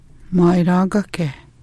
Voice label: "ma'iraagake", Hidatsa; doll